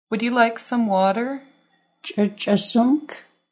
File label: Would you like some water, Nuu-chah-nulth language phrases